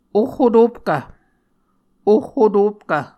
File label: "ohhotopkv", Muscogee; Mvskoke Words with Repeated Consonants; Onvkv Ohhvyayices; A Dictionary of Creek/Muskogee; SIL International